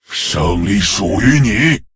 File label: Male Lich Concede; zh